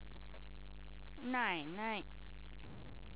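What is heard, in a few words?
"nai3nai", Chinese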